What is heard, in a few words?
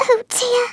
"oh dear", Scouser